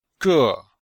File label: "zhe4", chinois; Cours-de-Chinois